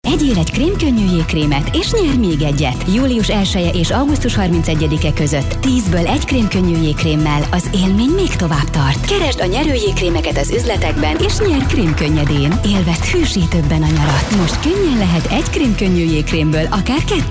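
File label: Hungarian, commercial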